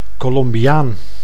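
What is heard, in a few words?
"Colombiaan", Dutch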